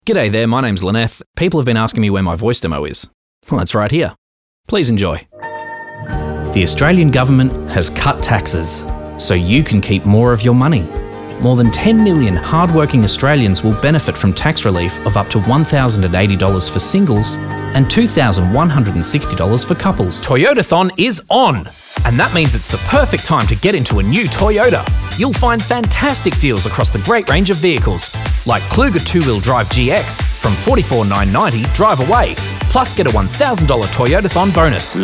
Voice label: Commercial